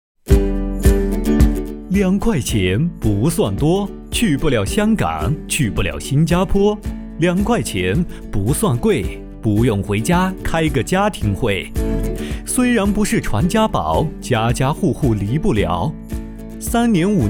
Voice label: 广告词两元店; 样音 广告词 两元店

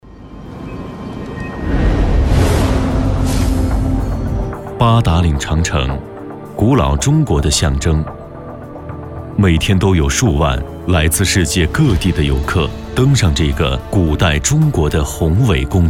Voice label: 纪录片; 创新中国

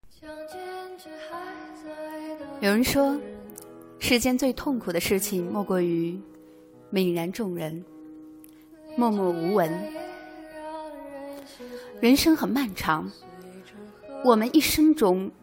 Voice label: 情感美文 自信大气女声

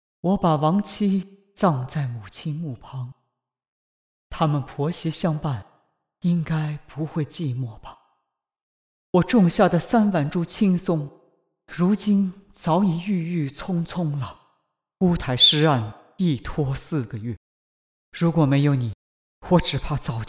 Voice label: 男声古代

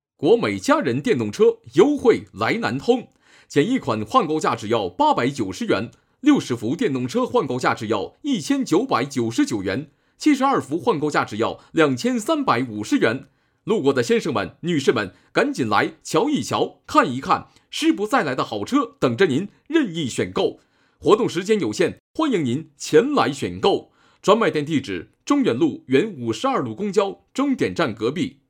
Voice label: 商场 叫卖 广告 激情洋溢

